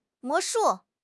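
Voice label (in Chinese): "魔术", Chinese; HSK; HSK6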